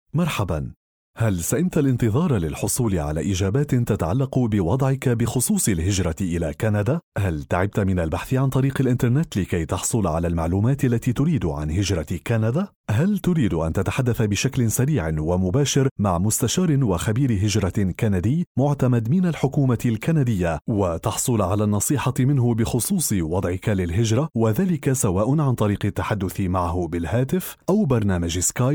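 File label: Arabic, Saudi Arabia, male, voice over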